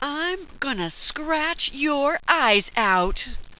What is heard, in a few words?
im gonna scratch your eyes out, sultry, attack; tactics